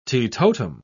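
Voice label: "teetotum", British English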